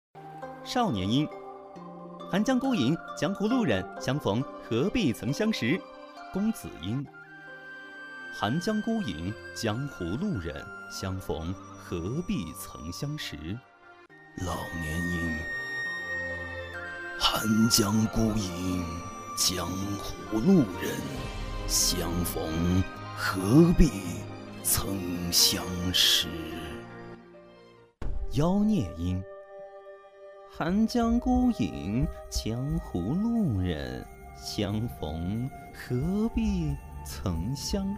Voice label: 配音

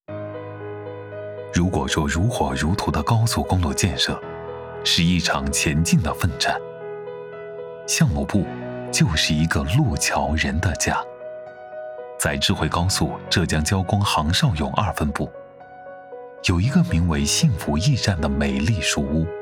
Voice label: 党建书屋温暖大气

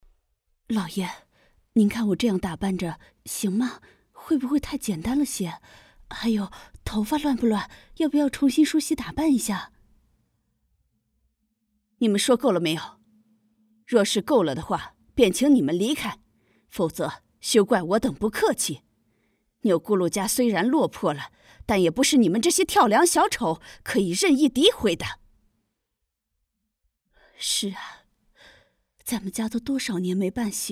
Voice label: 妈音角色

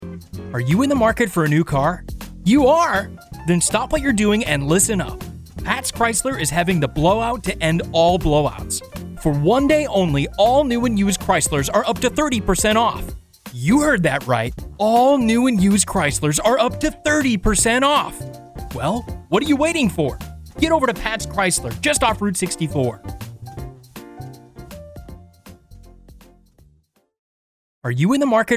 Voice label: 美式男英文广告汽车

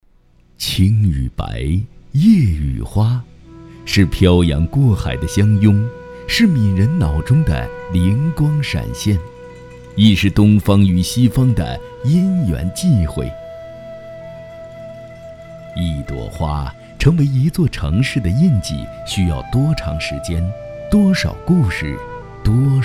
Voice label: 纪录片; 生顺茶栈

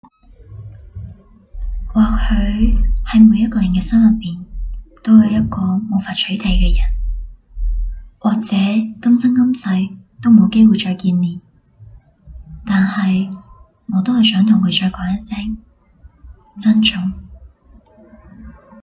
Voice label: 珍重粤

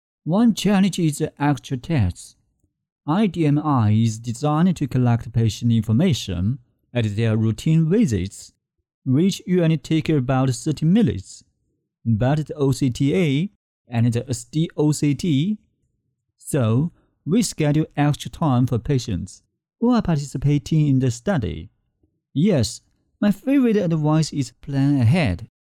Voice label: 英语 脱口秀; 国籍英语 鲸读全球 脱口秀; 英文; 外语

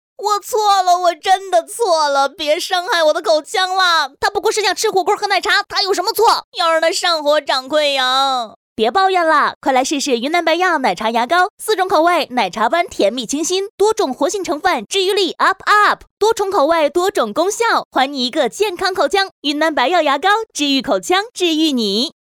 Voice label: 剧情广告片

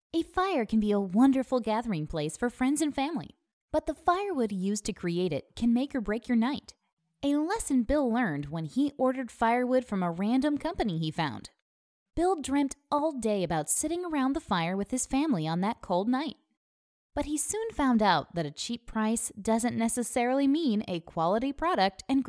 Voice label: 故事讲述生动

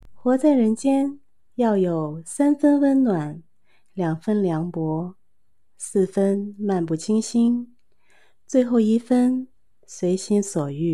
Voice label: 温暖自愈短句

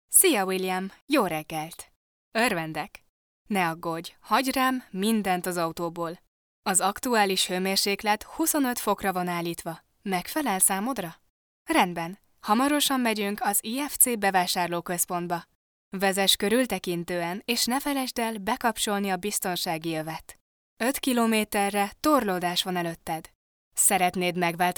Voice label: 匈牙利语女汽车导航

